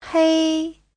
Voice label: "hei1", chinese

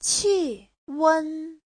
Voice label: "qi4wen1", chinese; Giáo trình Hán ngữ

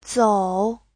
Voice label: "zou3", chinese

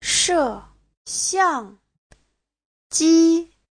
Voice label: "she4xiang4ji1", Chinese; 摄像机 : (danh từ) máy quay phim; Giáo trình Hán ngữ

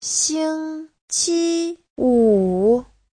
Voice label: "xing1 qi1 wu3", Chinese; 星期五 : (danh từ) thứ sáu

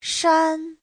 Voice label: "shan1", Chinese; Giáo trình Hán ngữ

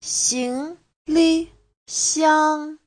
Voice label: "xing2 li5 xiang1", Chinese

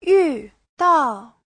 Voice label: "yu4 dao4", Chinese; 遇到; Giáo trình chuẩn HSK3

